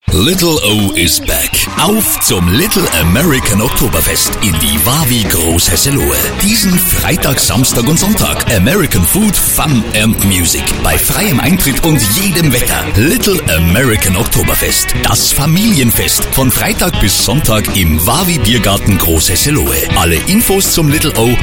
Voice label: German Male Radio Spot; OktoberFest